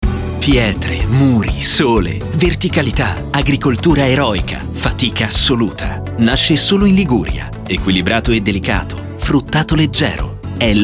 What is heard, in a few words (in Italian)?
Spot Radio; riviera ligure